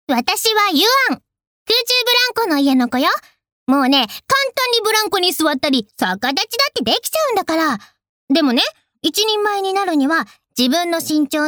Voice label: f onnanoko

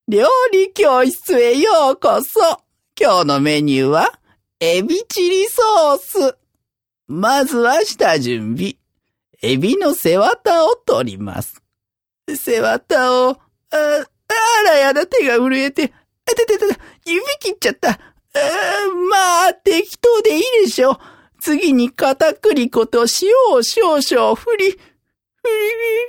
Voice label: obaasan; （おばあさん）

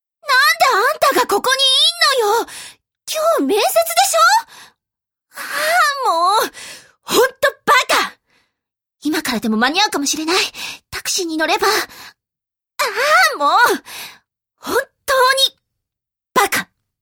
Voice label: kinotuyoi koukousei; （気の強い高校生）

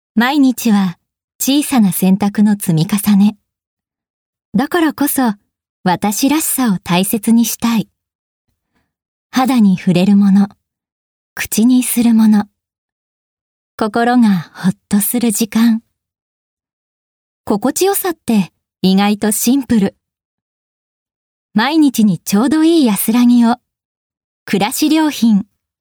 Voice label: 女性ナレーター, ナレーション

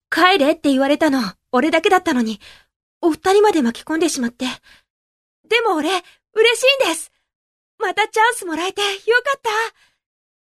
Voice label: syonen

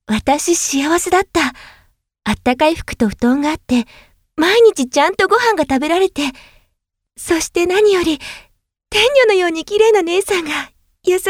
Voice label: zyosei

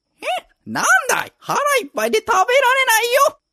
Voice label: obaasan; （おばあさん）

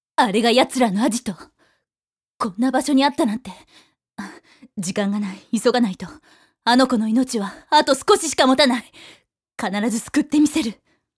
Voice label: zyosei

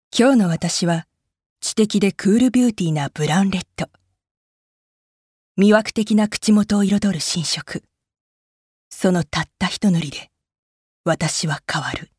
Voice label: narration, f otituita funiki

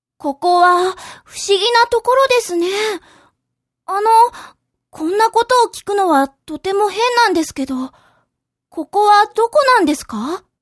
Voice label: syonen

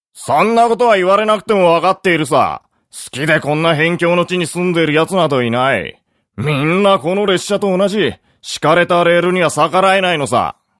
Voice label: m ojisan; nenrei

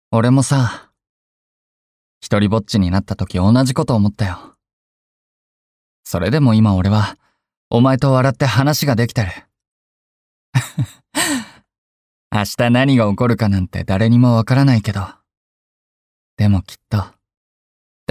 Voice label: m syonen; nenrei

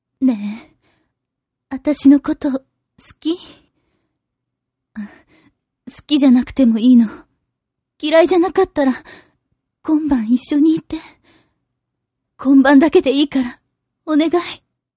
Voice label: zyosei

